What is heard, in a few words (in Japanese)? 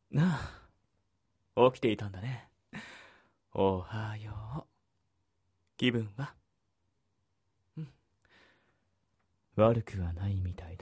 ASMRホラー みみかき 耳かき 女性向けボイス ヤンデレ; 【ASMRホラー みみかき】目を覚ました耳かき店で店主からお仕置き耳かきをされてしまいました【女性向けボイス／ヤンデレ／闇堕ち】